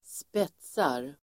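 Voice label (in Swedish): "spetsar", Swedish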